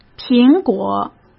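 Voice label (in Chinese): "苹果", Mandarin Chinese; hsk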